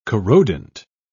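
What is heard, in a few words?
"corrodent", British English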